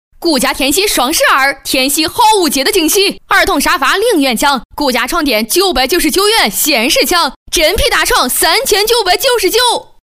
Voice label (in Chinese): 女 河南方言 广告; 幽默搞怪; 顾家天禧